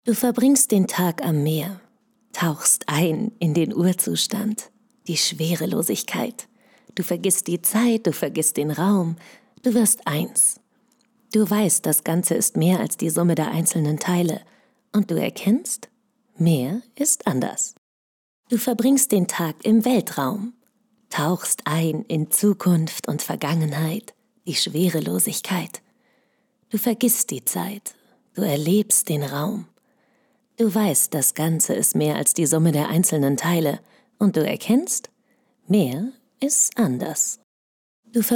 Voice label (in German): schauspiel poetisch